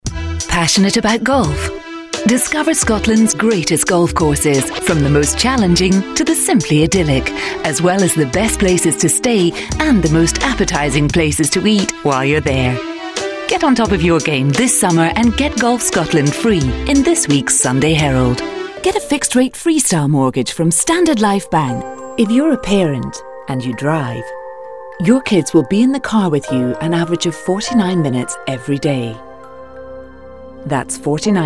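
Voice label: UK female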